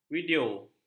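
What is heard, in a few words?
"video", Malay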